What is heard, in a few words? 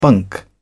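"bunk", British English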